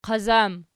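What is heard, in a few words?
"qazam" nominative, Archi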